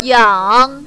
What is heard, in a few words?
"yang3", mandarin, female